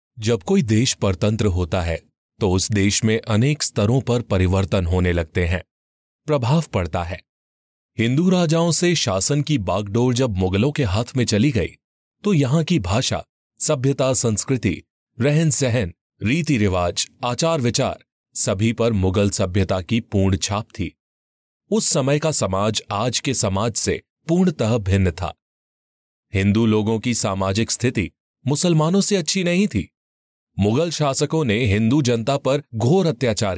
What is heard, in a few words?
Elearn Hindi